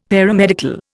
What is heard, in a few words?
"Paramedical", Indian; Dictionary